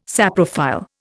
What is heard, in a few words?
"saprophile", Indian